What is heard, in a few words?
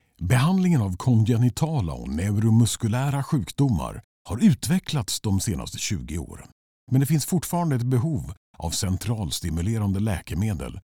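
medical, Swedish; e-learning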